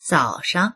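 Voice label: "zao3shang", Mandarin Chinese; 早上; 朝; HSK２級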